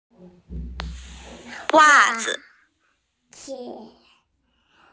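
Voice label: "socks", hearing impaired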